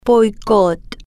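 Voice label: "boicot", Spanish, female, slow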